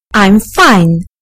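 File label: "i am fine", British English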